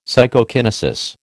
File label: "Psychokinesis", American